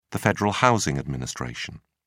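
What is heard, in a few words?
"federal housing administration", British English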